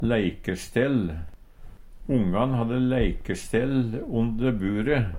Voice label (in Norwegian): leikestell, Numedalsmål; Ongan hadde leikestell onde bure.